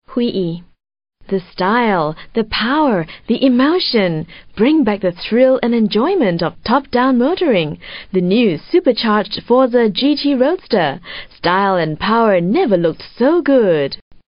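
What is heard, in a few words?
Asian English F, female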